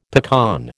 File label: "pecan", American